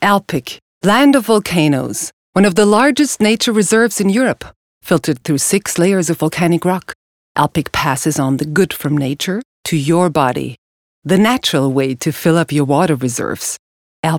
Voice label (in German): Werbung Natur EN